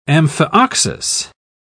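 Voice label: "amphioxus", British English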